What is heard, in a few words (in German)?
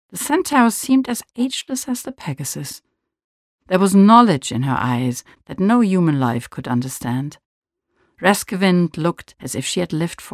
sprecherin; cornelia funke